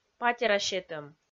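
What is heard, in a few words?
"патера сетӧм", Komi, female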